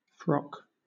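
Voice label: "frock", British English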